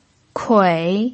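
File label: "kui", Mandarin Chinese pinyin, tone 3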